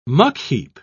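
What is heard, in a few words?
"muckheap", British English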